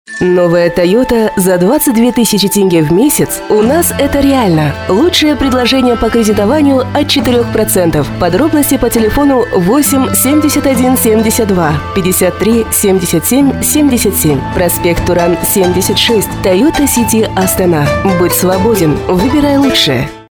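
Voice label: "nastya", Russian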